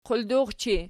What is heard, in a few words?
"quldurchi", Archi